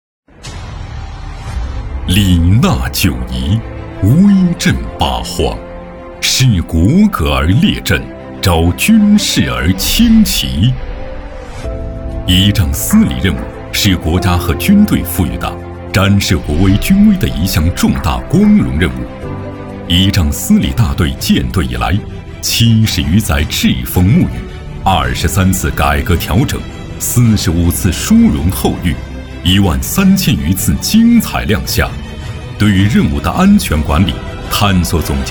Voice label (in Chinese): 男 专题 军队 片 庄严厚重; 国家仪仗司礼大队